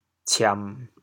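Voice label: "ciam1", Teochew, chaoyang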